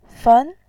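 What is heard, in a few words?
"fen", Mandarin Chinese, second tone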